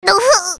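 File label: character voice damage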